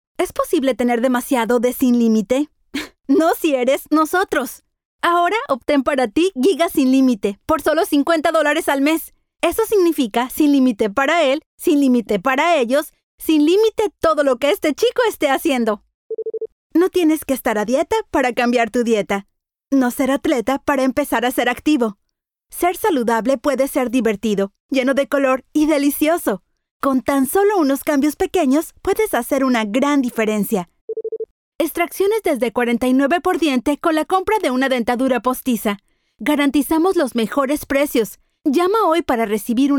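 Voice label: Spanish, commercial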